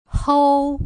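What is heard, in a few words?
"hou", Putonghua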